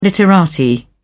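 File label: "literati", British English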